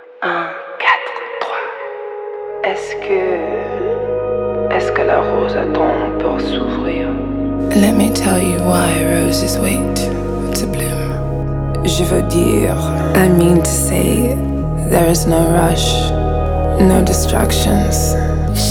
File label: Narration; Jodie Turner Smiths